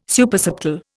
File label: "Supersubtle", Indian